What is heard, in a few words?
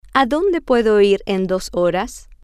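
where can I go in two hours, Spanish, female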